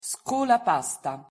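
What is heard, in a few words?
"scolapasta", Italian pronunciation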